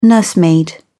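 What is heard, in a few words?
"nursemaid", English, British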